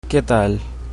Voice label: hello, chabacano